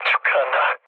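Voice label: Korean player killed enemy mood low; speech; War Thunder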